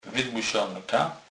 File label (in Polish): "wydmusonka", kurpie